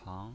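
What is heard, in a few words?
"pang" second tone, pinyin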